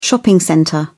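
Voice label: "shopping centre", British English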